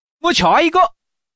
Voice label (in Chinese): chi, changsha man; mahjong paohuzi